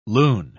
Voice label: "loon", pronounce

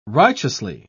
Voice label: "righteously", pronounce

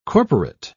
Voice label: "corporate", pronounce

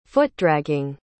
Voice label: "foot-dragging", pronounce

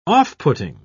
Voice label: "off-putting", pronounce

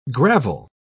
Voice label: "gravel", pronounce

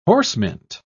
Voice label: "horsemint", pronounce

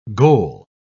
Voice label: "goal", pronounce

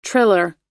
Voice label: "triller", pronounce

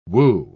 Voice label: "woo", pronounce

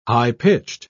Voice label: "high-pitched", pronounce

